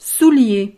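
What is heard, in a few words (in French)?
"soulier", French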